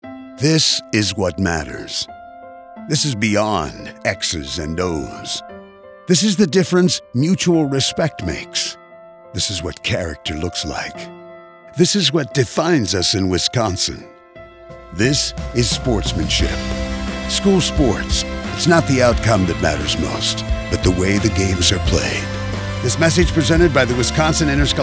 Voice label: audio spot